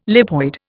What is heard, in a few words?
"lipoid", Indian